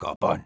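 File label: negative vocalization, Spanish; Sniper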